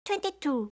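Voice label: "22", English; eng suuji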